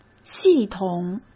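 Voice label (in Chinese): "系统", Mandarin Chinese; chinese-flashcards; hsk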